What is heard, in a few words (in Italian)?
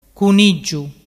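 "cuniggiu", dialect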